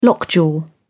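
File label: "lockjaw", English, British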